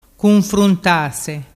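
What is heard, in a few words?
"cunfruntase", Vernazzese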